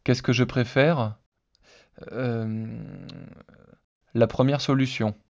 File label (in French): hesitation synthese basique